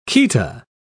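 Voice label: "chaeta", British English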